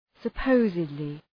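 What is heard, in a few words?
"supposedly", English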